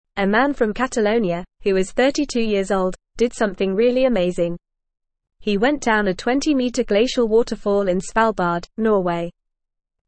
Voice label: English Newsroom Upper Intermediate FAST Reading; Record breaking kayaker descends largest ice waterf